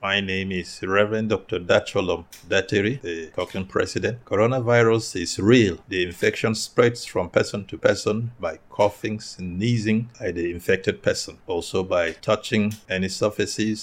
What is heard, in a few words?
English; PLATEAU